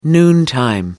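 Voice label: "noontime", British English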